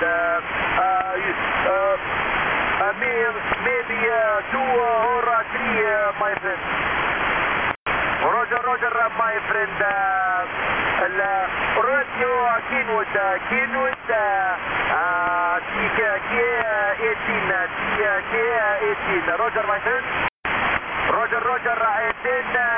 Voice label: Libya on 18MHz SSB